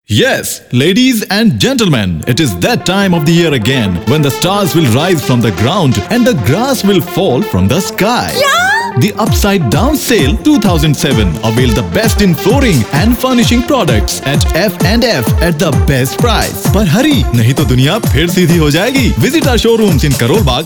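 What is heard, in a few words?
Hindi Accented English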